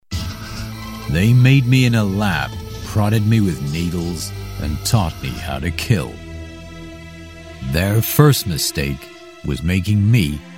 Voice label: Character Demo US accent

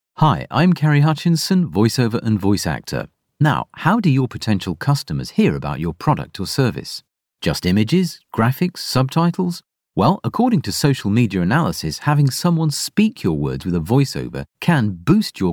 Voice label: corporate marketing

